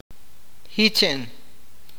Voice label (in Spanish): "nadar", awakateko